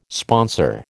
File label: "sponsor", American